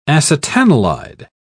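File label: "acetanilide", British English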